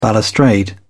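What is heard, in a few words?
"balustrade", British English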